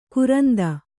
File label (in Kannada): "ಕೋರಿಂದೆ", Kannada